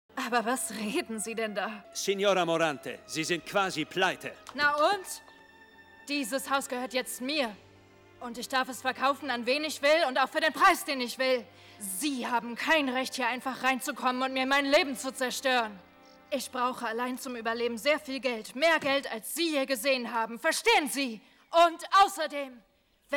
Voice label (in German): Wuetend